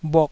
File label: "bhuk2", taiwanese Syllables